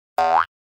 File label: comedy accent; NCH Library